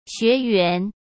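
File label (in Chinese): "学员", Mandarin Chinese; chinese flashcards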